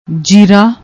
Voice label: "jeera", Punjabi